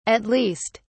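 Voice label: "at least", British English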